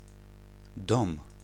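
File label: "dom", Polish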